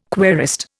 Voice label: "querist", Indian

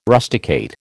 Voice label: "rusticate", American

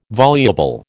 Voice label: "voluble", American

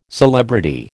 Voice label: "celebrity", American

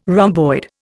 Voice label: "rhomboid", Indian

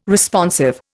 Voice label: "Responsive", Indian

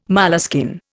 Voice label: "moleskin", Indian

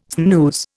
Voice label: "snooze", Indian

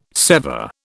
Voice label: "sever", British

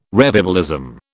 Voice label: "revivalism", British

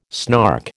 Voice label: "snark", American

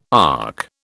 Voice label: "ARC", British

